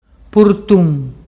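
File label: "purtun", Ligurian dialect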